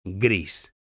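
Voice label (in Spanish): "gris", Spanish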